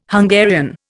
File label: "Hungarian", Indian